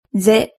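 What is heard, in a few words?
"ze", Japanese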